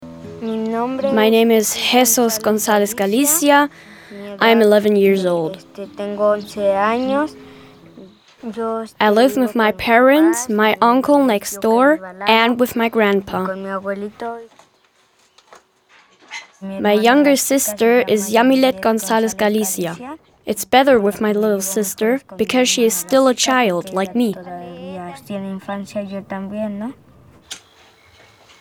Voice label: Overvoice EN